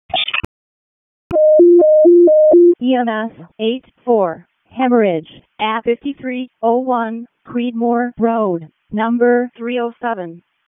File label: EMS ALERT; p25